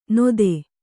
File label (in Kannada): "ನೊತೆ", Kannada